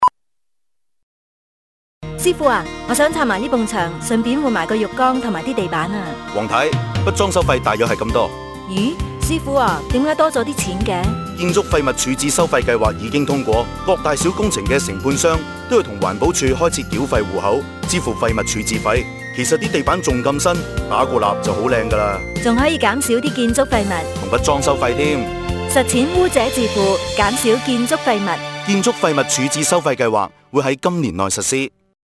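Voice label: radio Cantonese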